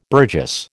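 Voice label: "burgess", American English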